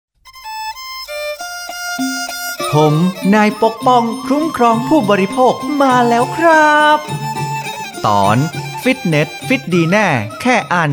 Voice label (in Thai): สปอตวิทยุ สคบ. ภาคเหนือ